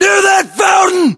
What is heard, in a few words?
US landmark near fountain, battlechatter, english